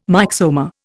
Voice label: "myxoma", Indian